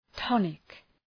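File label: "tonic", English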